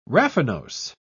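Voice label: "raffinose", British English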